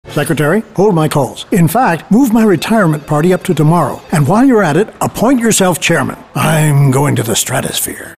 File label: real mature